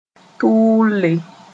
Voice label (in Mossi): "tʋʋlle", moore; rapidly